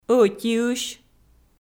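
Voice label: "ӧтиысь" Komi, female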